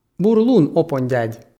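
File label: "бур лун опонь дядь", Komi, male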